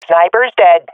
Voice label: i killed sniper, swat fem; vo; Counter Strike Model Pack; CS:GO

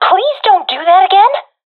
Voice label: sees friend killed, professional fem; vo; Counter Strike Model Pack; CS:GO

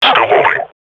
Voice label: combat idle, grunt; Half Life Model Pack

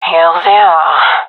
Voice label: sees enemy, gendarmerie fem; vo

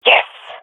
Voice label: cheer, swat fem; Counter Strike Model Pack; CS:GO

